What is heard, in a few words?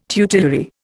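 "tutelary", Indian English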